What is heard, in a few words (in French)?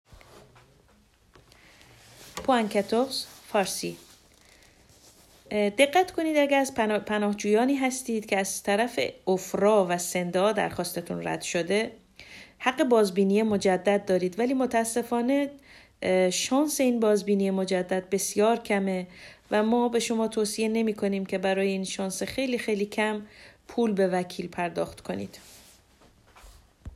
farsi re examen